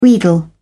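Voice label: "wheedle", British English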